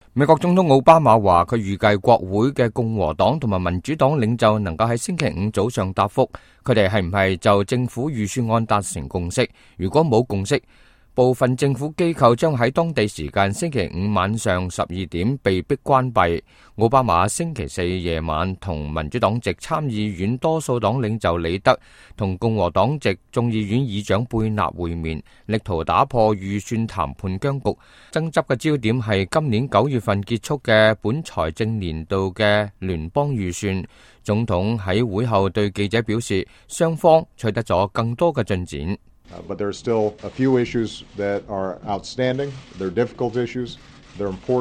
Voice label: cantonese, news